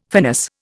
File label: "finis", Indian